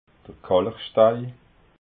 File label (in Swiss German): "kalchstei", alsace